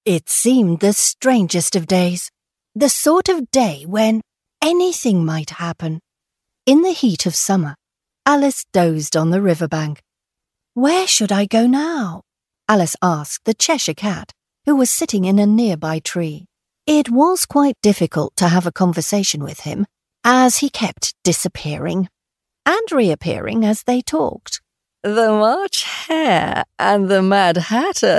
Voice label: audiobook mixed reel, Natural Female Voice

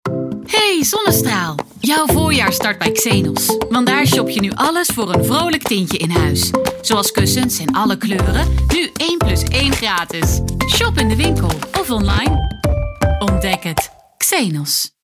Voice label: dutch voice commercial

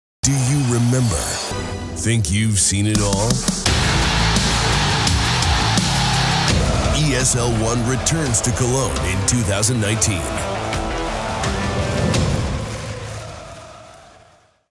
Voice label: american voice continuity radio

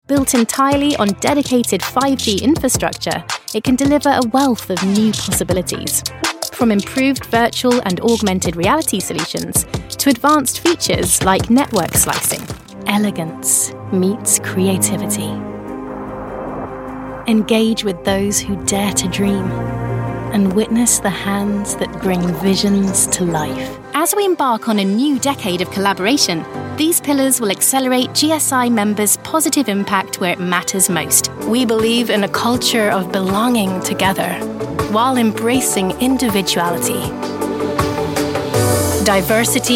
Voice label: corporate

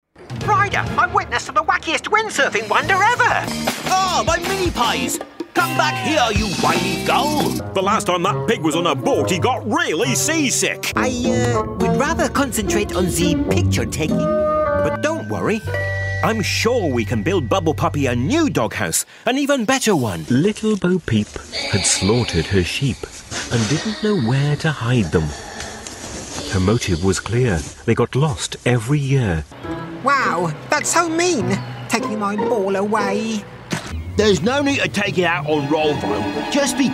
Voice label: character, Bright Voice For Characters

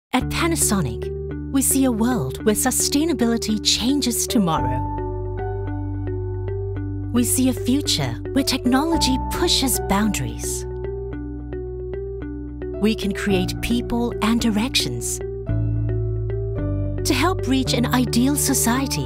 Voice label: singapore voice corporate

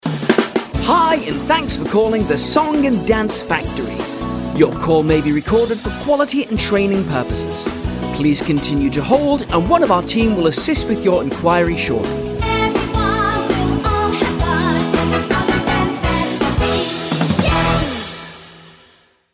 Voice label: telephone

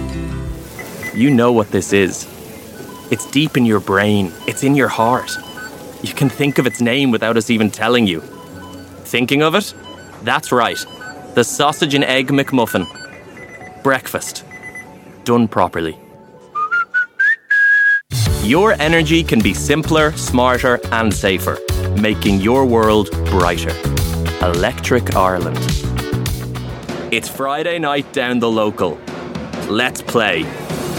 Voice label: irish voice commercial

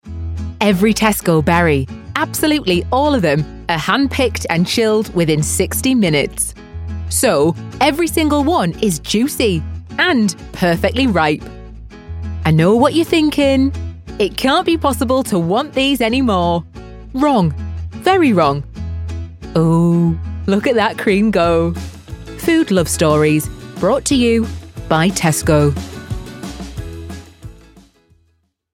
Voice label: northern voice commercial

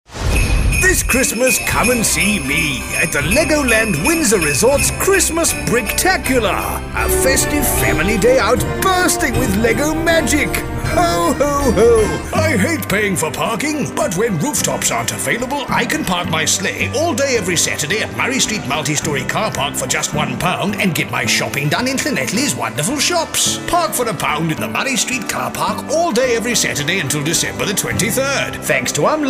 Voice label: santa, voiceover

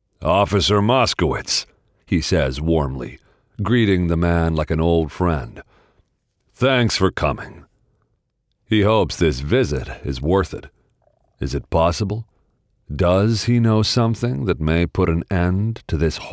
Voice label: american narration

